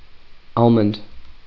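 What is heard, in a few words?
"almond", USA, male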